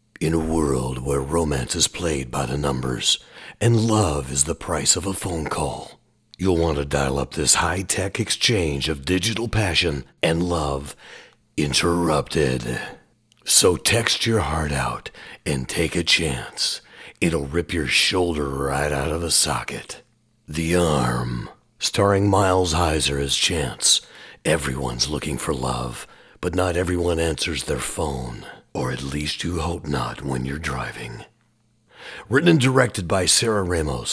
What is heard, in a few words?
TRAILER VO dry